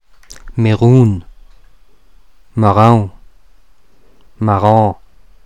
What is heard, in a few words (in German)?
Meran Mundart